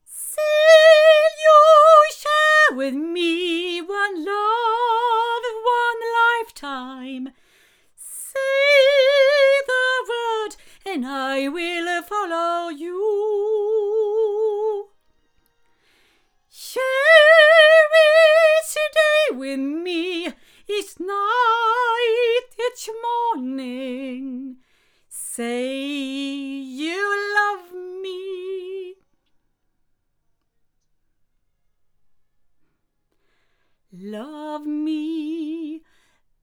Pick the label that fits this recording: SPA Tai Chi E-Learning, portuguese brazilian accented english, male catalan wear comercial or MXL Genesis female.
MXL Genesis female